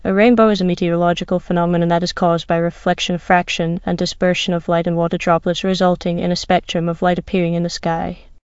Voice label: speaker, en GB; piper voices; vctk